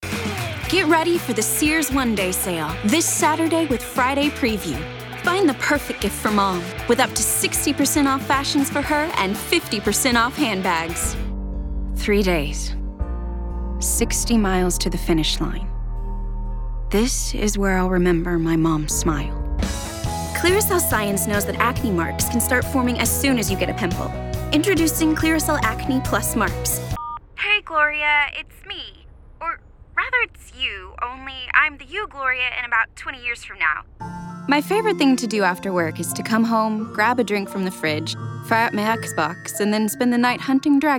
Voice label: Commercial